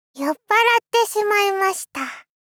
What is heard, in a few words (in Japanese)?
"酔っぱらってしまいました・・", 少女×中音域